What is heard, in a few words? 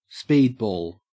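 "speedball", Australian English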